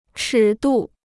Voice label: "尺度", Chinese

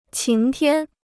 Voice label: "晴天", Chinese

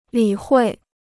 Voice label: "理会", Chinese

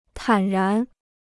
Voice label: "坦然", Chinese

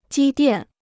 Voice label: "积淀", Chinese

